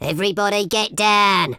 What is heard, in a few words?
"incoming", speech